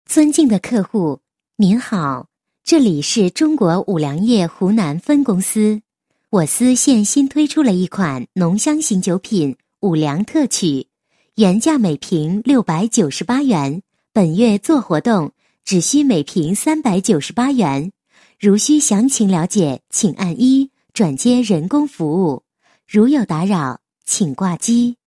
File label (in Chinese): 女 彩铃, 国语女声配音; 湖南五粮液